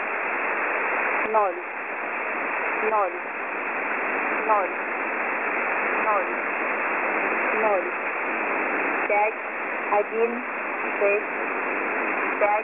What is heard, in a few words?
Number station 10290kHz Am; web SDR; dash number stations recordings; dash recordings from web sdr